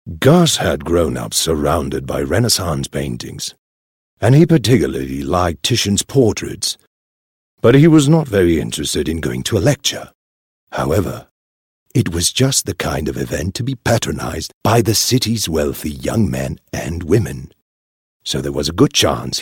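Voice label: British English, Documentary; GoLocalise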